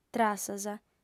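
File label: "trassas", female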